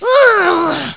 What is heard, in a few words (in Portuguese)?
mulher brava; sons humanos